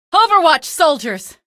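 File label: citizen alert soldier, female; vo; npc; source engine; episodic; episode 1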